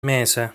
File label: "Mese", Italian